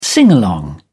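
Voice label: "singalong", British English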